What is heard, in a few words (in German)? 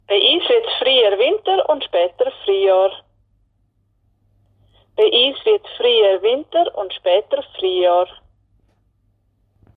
Mundart; tannheimertal